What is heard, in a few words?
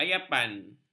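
"ayapan", Malay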